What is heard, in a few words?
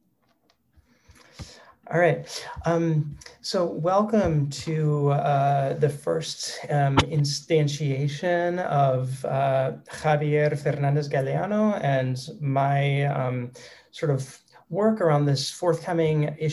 podcast